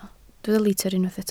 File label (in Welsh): "litr" Welsh